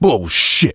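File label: reaction negative, male; survivor; coach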